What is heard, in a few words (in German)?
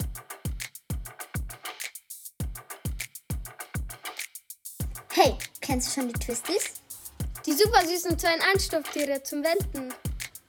werbung; twisties; junior mini company twisties der volksschule baiern 4a